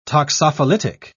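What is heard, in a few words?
"toxophilitic", British English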